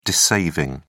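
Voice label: "dissaving", British English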